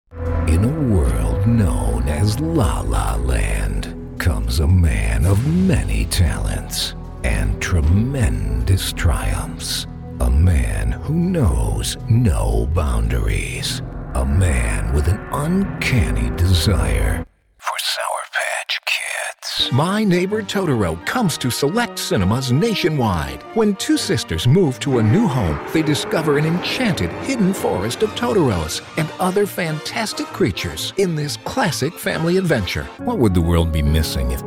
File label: movie trailer and TV promos